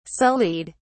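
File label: "sullied", British English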